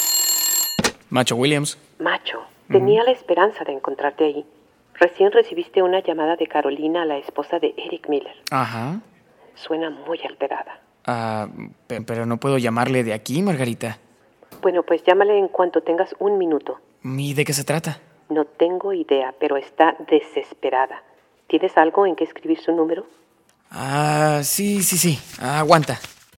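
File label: Dialog interaction, Spanish voice-over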